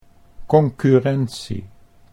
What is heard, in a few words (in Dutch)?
"concurrentie", Dutch